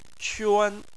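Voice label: "quan", Chinese, tone 1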